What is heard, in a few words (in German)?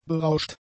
"berauscht", German; adjectives